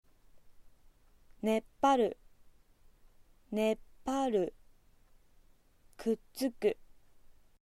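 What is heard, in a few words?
"stick", Aizu dialect Japanese; kuttsuku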